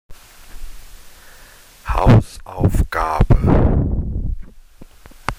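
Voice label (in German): "Hausaufgabe", German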